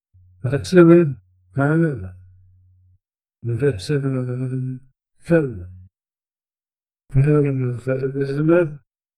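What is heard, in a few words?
a soft warm male indonesian, announcer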